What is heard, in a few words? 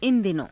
"ina dino~", Hindi